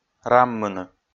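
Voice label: "раммыны", Komi, male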